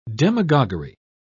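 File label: "demagoguery", British English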